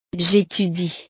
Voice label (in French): "j'étudie", frances; Yo estudio